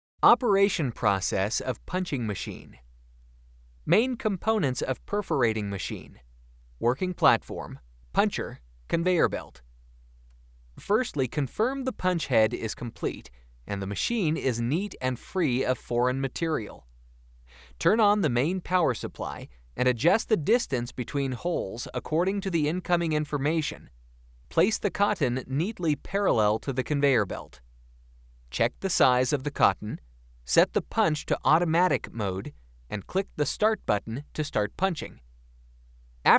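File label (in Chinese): 外籍英男英文配音; 似水英文配音稿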